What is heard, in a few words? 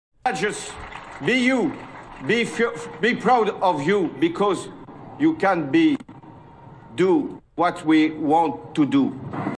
Hollande English; Francois Hollande anglais